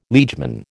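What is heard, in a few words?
"liegeman", American English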